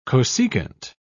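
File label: "cosecant", British English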